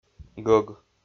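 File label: "гӧг", Komi-Zyrian, male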